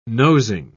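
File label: "nosing", British English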